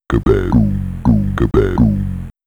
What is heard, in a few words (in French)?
Voix bat, cartoonesque; Goblins 3